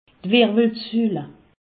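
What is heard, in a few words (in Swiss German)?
"wirwelsul", Alsatian; medecine; colonne vertébrale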